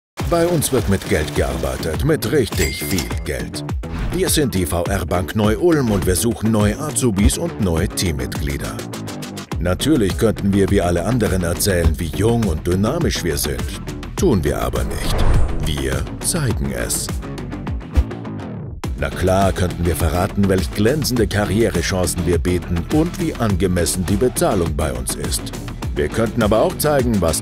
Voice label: Commercial; VRBank